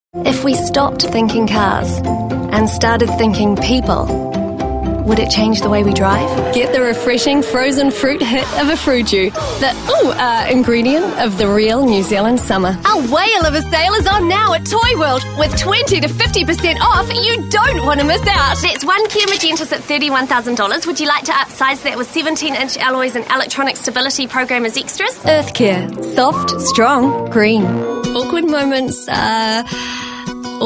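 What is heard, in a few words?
english australian female commercial; voice demos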